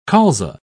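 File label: "colza", British English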